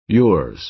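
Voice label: "yours", American English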